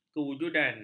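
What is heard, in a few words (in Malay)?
"kewujudan", Malay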